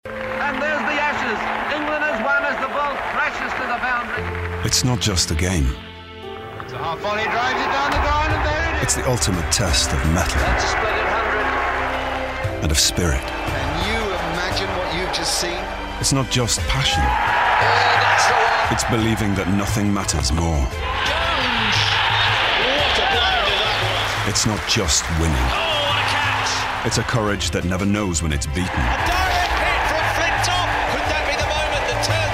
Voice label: Promo; ECB Ashes